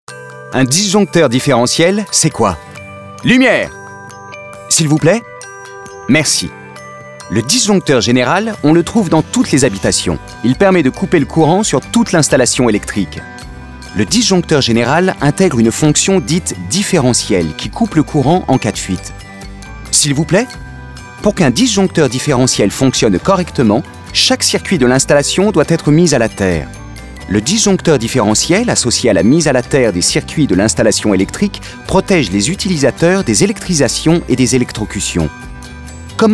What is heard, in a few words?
Corporate